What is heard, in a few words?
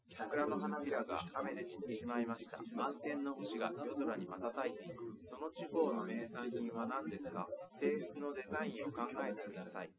male liverec 250ms 5cm